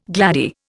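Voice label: "glade", Indian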